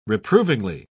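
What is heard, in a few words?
"reprovingly", British English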